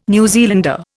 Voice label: "New Zealander", Indian

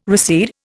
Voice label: "recede", Indian

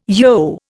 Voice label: "yogh", Indian

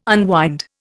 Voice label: "unwind", Indian

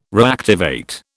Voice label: "reactivate", British

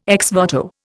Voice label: "ex voto", Indian

